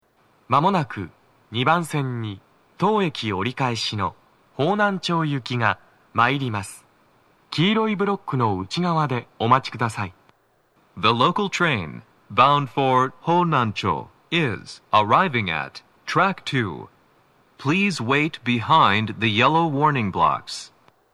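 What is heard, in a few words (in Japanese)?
接近放送 男声; 池袋; 放送は「方南町行」です。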